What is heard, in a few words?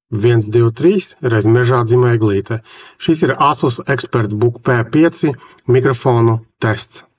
mic test; ASUS ExpertBook P5; ASUS ExpertBook P5 P5405 Copilot portativa datora